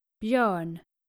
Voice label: "bjoern", skandinavische jungennamen

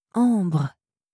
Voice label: "ambre", franzoesische maedchennamen

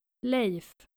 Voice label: "leif", nordische jungennamen

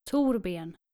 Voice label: "thorben", nordische jungennamen

